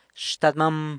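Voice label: "xtadmam", grandfather, San Lucas Quiaviní Zapotec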